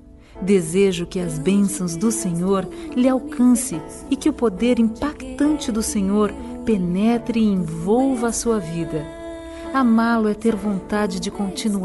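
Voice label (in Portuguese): Evangélica Aniversário de Namorado, female, telemensagens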